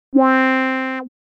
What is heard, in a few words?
Comic voice